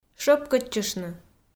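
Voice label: "шӧпкӧдчыштны", Komi, female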